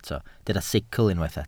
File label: "sicl", welsh